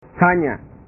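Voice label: "hanya", Indonesian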